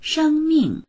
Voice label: "sheng1ming4", voices; 生命

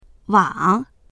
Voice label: "wang3", voices

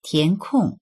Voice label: "tian2kong4", voices

